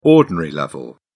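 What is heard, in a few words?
"ordinary level", English, British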